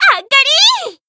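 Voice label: Voice finish Japanese; millecha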